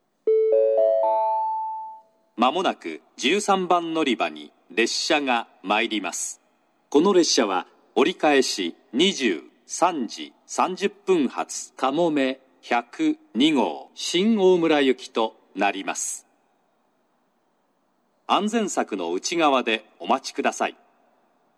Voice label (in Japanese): 長崎 西九州新幹線ホーム 自動放送, 13番のりば接近予告放送